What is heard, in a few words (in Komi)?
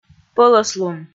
"пӧлӧслун", Komi